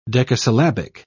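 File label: "decasyllabic", English, British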